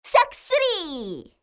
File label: Clear Voice; RandomGFGoStop